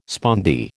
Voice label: "spondee", American